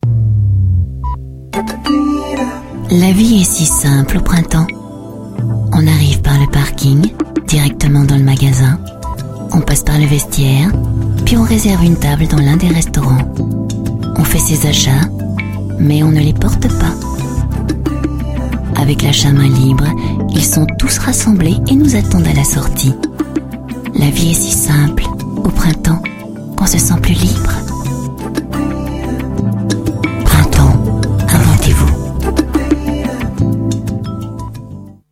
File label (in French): voix grave; le printemps